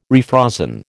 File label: "refrozen", American